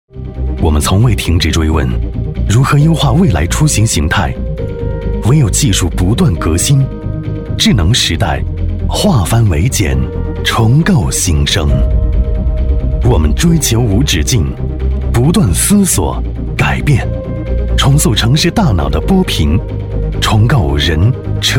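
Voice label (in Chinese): 国语 男声 宣传片 科技、大气、低沉 大气浑厚磁性 沉稳; 智能时代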